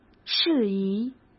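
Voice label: "适宜", Mandarin Chinese; hsk; chinese flashcards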